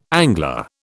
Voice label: "angler", British

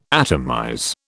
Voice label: "atomize", British

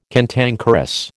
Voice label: "cantankerous", American

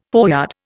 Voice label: "foreyard", Indian

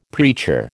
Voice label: "preacher", American

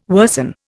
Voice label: "worsen", Indian

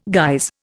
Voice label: "guise", Indian

